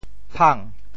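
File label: "phang2", Teochew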